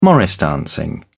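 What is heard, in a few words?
"morris dancing", British English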